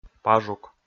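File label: "пажук", Komi, male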